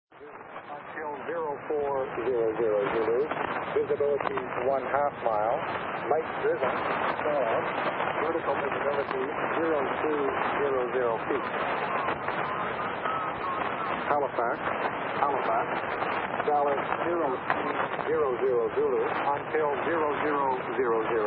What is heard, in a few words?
volmet usb